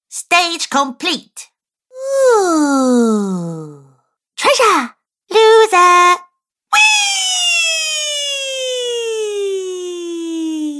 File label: Cartoon Girl Variety Pack, Cartoon Voice